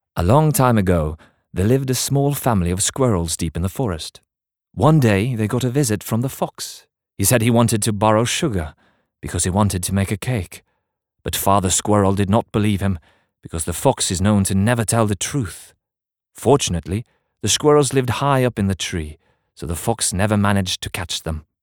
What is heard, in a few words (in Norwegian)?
no fortelling eng britisk, norsk, mann